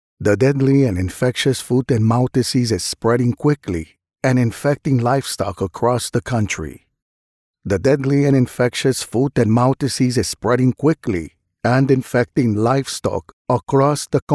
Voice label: Southamerican Newscaster

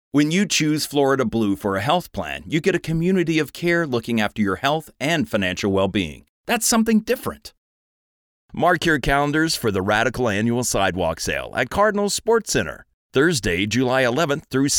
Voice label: Commercial

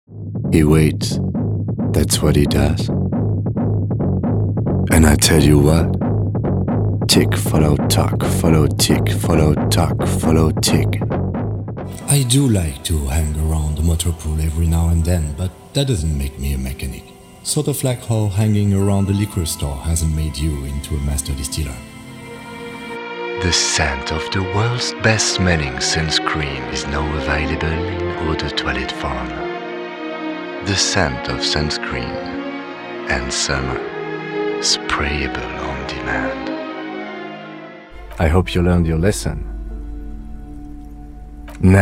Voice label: English

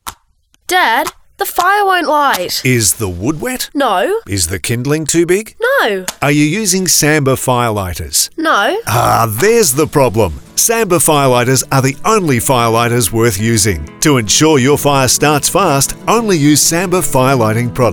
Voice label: 30 Second Commercial

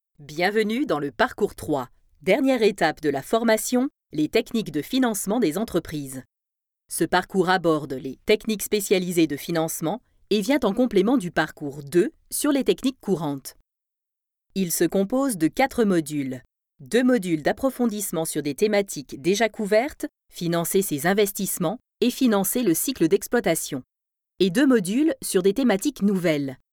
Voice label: Technical training - Fr; Banque de France

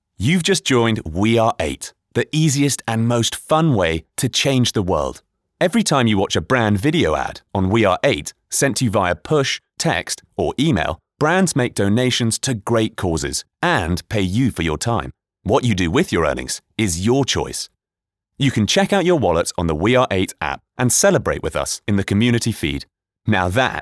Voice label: Onboarding Video

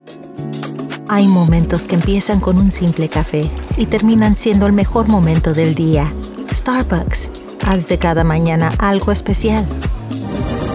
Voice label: Spanish with Music, Latam, Female; Spanish Demo Reel - Latam

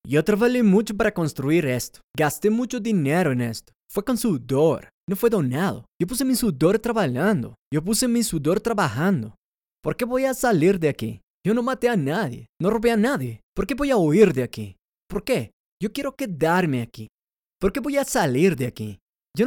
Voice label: Brazilian

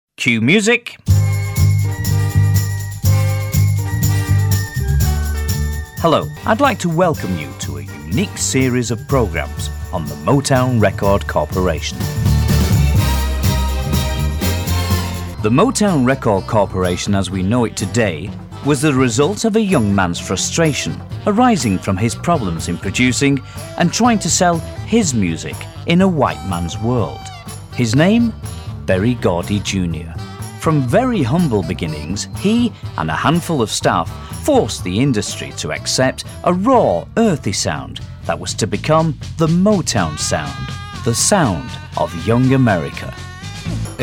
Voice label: RADIO DOCUMENTARY; MOTOWN LOST AND FOUND

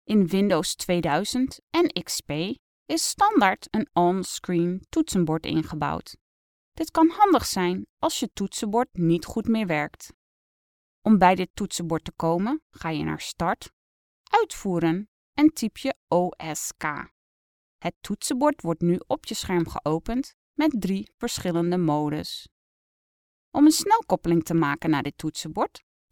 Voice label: Dutch E Learning

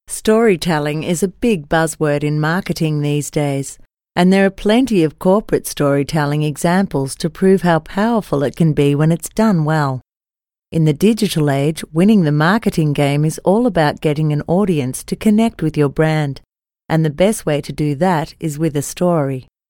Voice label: Corporate Narration Voiceover Sample

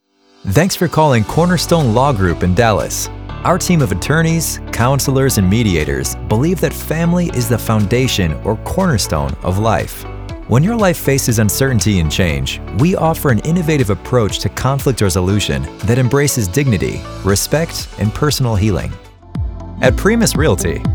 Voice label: IVR Phone American Male

